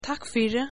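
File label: thanks, Faroese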